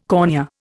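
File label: "cornea", Indian English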